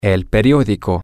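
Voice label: the newspaper, Spanish, male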